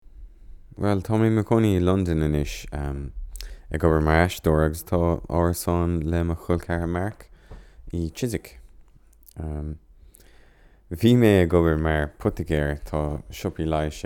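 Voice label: Irish, Corporate; GoLocalise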